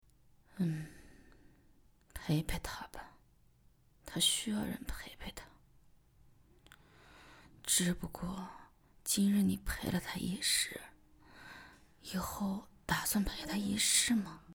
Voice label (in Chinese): 老年